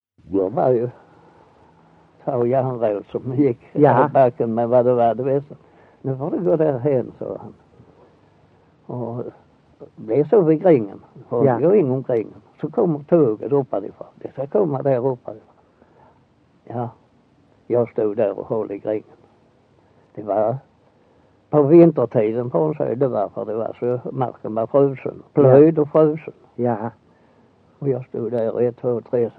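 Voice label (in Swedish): Össjö Skåne, Svenska sagor, dialekter; Folkminnen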